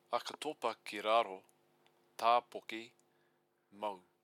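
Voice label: "akatopakiraro tapoki mou", Maori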